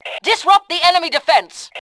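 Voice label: attack distract, voice Fem; Tribes2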